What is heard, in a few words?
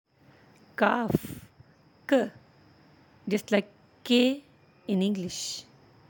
"kaf", Arabic; ك; Like K